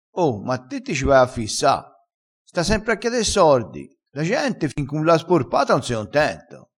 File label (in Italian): "sporpa", crespinese